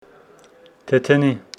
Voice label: Homshetsi mulberry tree

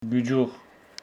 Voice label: Homshetsi february

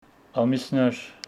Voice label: Homshetsi mounths

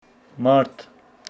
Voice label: Homshetsi march

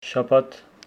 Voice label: Homshetsi saturday

